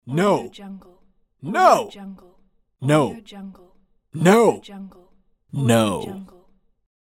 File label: male saying no